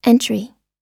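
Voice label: "entry", English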